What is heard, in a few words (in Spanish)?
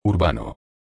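"urbano", Spanish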